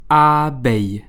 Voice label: "Abeille", French